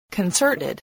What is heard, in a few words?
"concerted", english